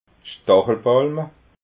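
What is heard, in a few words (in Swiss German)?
"stachelpalma", Alsatian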